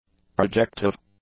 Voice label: "projective", english; adjectives

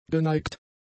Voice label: "geneigt", German; adjectives

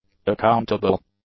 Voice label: "accountable", english; adjectives

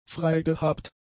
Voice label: "freigehabt", German; adjectives

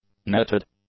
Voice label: "netted", english; adjectives

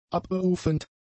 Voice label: "abberufend", German; adjectives

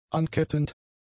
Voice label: "ankettend", German; adjectives

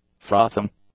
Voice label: "frothing", English; adjectives

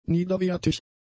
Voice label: "niederwertig", German; adjectives

